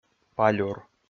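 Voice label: "палюр", Komi, male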